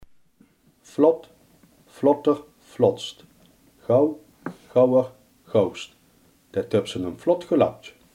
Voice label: "vlug", limburgse

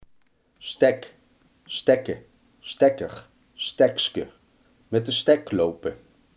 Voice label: "stok", Limburgs

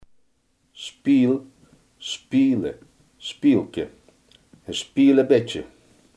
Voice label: "spijl", Limburgs

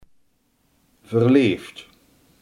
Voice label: "verliefd", limburgse

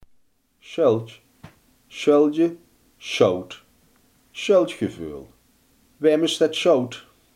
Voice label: "schuld", Limburgs

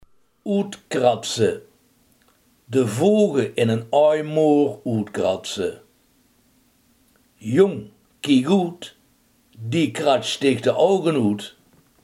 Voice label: "uitkrabben", limburgse

